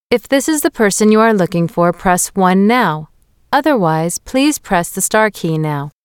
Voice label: american english Agent Confirmation